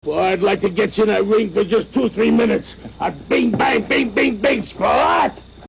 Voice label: talking gibberish; lou